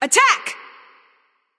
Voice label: VOX Attack; Pixel Chaos Discordia